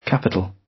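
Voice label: "capital", British English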